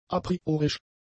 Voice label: "apriorisch", German; adjectives

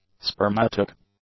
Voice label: "spermatic", english; adjectives

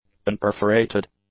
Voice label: "unperforated", english; adjectives

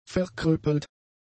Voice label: "verkrüppelt", German; adjectives

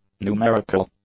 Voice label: "numerical", english; adjectives

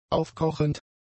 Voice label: "aufkochend", German; adjectives

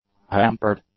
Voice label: "hampered", English; adjectives

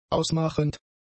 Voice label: "ausmachend", German; adjectives

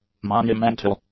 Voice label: "monumental", English; adjectives

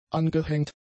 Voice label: "angehängt", German; adjectives

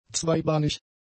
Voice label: "zweibahnig", German; adjectives

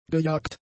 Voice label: "gejagt", German; adjectives

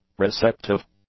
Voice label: "receptive", English; adjectives

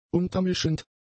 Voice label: "untermischend", German; adjectives

